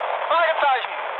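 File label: German enemy detected mood med, speech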